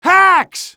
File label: hacks, male; Half-Life 2